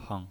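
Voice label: "heng", neutral tone, pinyin voice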